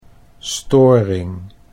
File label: "storing", Dutch